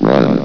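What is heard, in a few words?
"run", male synthesized announcer voice; hlvox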